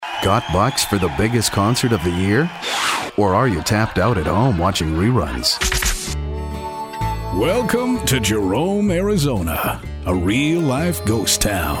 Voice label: Commercial Demo